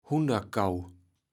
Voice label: "Hunderkau", kaevels platt

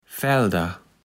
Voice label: "Fällder", Platt

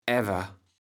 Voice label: "äwe", kaevels platt

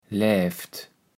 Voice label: "läft-et", kaevels platt

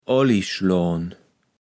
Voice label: "Oallijschloan", kaevels platt

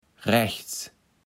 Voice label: "raechs", Platt

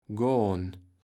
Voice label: "gohn", kaevels platt

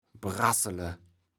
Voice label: "braßele", kaevels platt

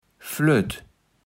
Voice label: "flött", kaevels platt

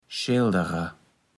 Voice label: "Schälderer", Platt

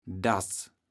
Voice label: "Daß", kaevels platt

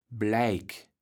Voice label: "Bleik", kaevels platt

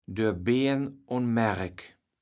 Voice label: "doer Been on Maerk", kaevels platt

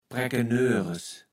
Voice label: "Präckenöres", Platt

